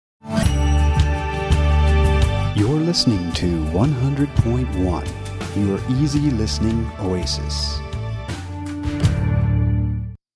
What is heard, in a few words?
Radio Station ID Easy Listening; BTM